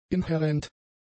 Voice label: "inhärent", German; adjectives

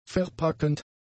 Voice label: "verpackend", german; adjectives

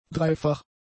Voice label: "dreifach", German; adjectives

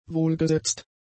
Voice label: "wohlgesetzt", German; adjectives

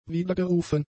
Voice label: "wiedergerufen", German; adjectives

